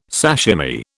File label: "sashimi", British